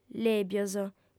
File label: "lèbios", audiofem